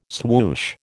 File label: "swoosh", American